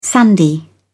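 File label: "sandy", British English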